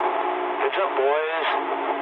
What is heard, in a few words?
eng near waypoint mood low, speech eng